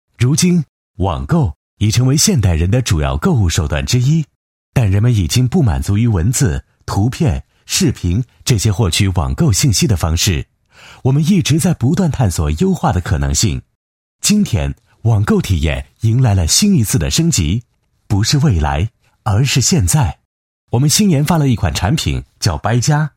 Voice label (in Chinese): 男 专题 科技 年轻 活力, 国语男声配音; 网购buy加计划